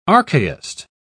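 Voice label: "archaist", British English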